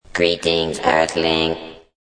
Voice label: Robot says Greeting Earthling; Robot Speaks 2